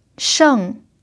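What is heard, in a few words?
"sheng4", Chinese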